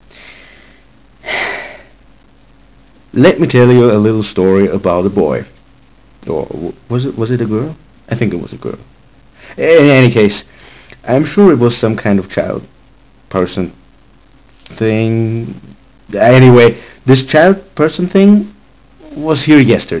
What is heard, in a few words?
word machine speech